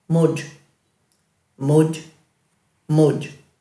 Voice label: "mudj", Dhurga

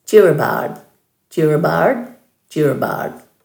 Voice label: "djirabard", Dhurga

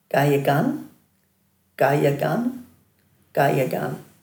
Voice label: "gaygan", Dhurga

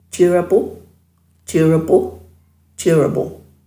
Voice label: "djirabu", Dhurga

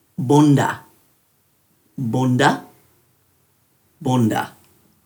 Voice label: "bunda", Dhurga

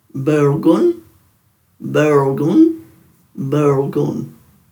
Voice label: "burlgunj", Dhurga

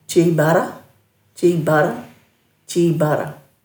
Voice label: "djiibarra", Dhurga

